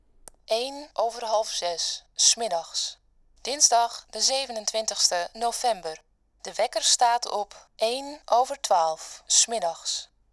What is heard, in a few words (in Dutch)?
Nl vrouw